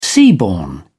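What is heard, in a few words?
"seaborne", English, British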